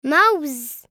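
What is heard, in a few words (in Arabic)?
"موز", Arabic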